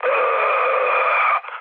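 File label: Serbian damaged pilot mood low, speech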